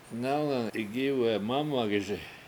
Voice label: "ngAwngang igIwe7 mAmagiSi", washo; child grows fast

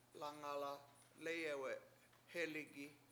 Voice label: lAngala7 lEyewe7 hEligi7 I might go home, Washo

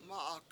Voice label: "mA7ak", washo; wood

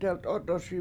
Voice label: "delt7Ot7oSi7" Off Color, Washo

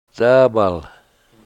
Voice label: "daabal", Washo, sagebrush

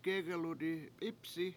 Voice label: sat then stood up, Washo; gEgel udi pIpsi7

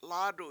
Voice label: "lAAdu7", washo; my hand

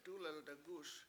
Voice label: "dUlel degU7uS" next row, Washo

